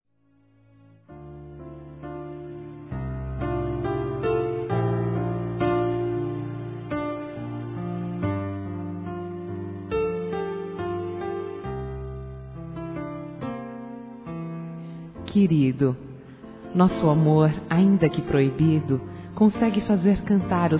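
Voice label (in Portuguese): Por não ter telefonado, Desculpas, Telemensagens, female; Telemensagens 2017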